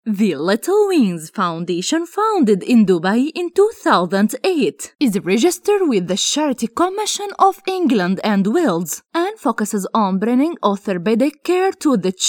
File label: English